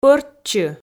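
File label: "пӧрччы", Komi, female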